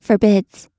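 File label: "forbids", American English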